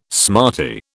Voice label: "smarty", British

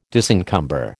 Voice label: "disencumber", American

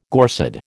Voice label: "Gorsedd", American

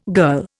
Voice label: "girl", Indian

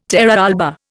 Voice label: "terra alba", Indian

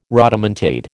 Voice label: "rodomontade", American

